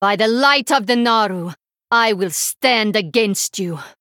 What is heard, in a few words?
Female Draenei Exchange